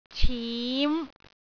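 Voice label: "cim5", Cantonese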